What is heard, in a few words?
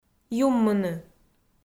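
"юммыны", Komi, female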